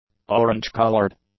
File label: "orange-colored", English; adjectives